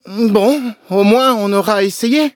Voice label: defeat, fr; cookie run